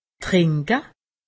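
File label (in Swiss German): "drinke", Alsatian; medecine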